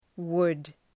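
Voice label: "wood", English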